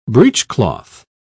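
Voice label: "breechcloth", British English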